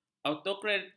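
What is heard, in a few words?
"autoritarian", Malay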